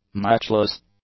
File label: "matchless", English; adjectives